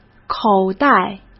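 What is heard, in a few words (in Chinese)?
"口袋", Mandarin Chinese; hsk; chinese flashcards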